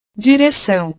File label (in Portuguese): "direcao", portugues